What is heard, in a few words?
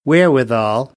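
"wherewithal", American, English; AmericanBritish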